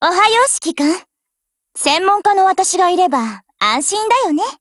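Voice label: HELLO, Japanese; GF Alarm; NEGEV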